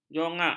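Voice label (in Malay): "jongak", Malay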